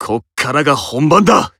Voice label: Emo Inspire Self